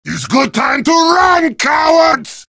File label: heavy taunts; video games; source engine; tf